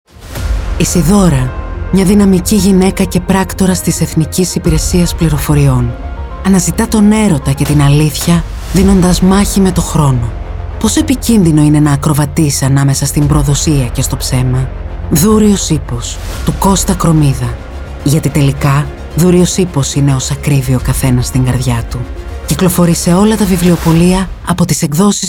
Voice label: Radio Spot; Δούρειος Ίππος

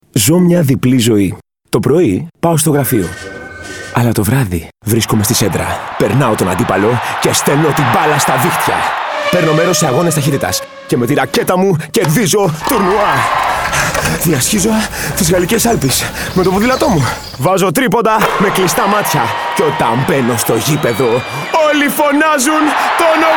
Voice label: Radio; Vodafone TV

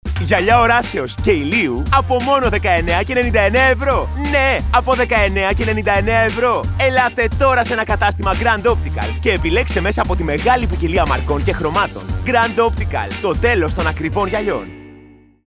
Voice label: Radio; Grand Optical

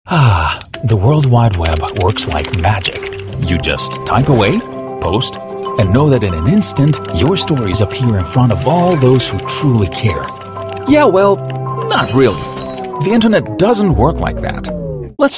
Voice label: Explainer Videos

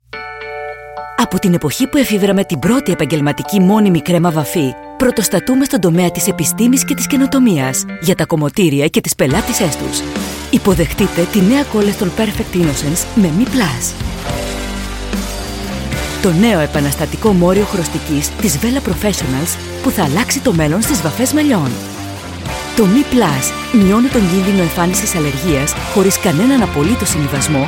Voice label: Corporate

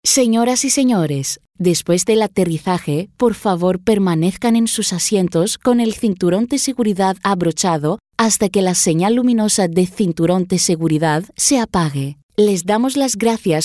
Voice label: spanish

